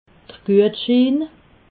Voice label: "guetschiin", alsace

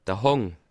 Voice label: "hang", Alsace

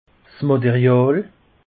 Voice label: "material", alsace; peche

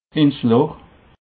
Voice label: "loch", alsace

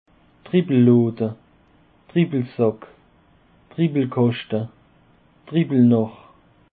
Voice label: "dribbellaade", alsace

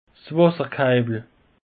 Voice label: "wasserkalwel", alsace; peche

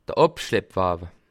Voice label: "abschlepp", alsace

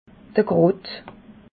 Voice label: "krott", alsace

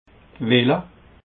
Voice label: "wille", alsace

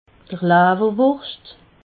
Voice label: "lawwerwurscht", alsace

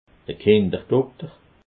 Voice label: "kinderdokter", alsace

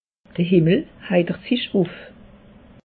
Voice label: "himmel", alsace; meteo

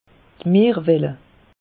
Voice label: "meer walle", alsace; meteo

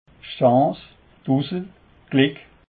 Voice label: "chance", alsace

